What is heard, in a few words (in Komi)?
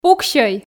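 "пуксьӧй", Komi, female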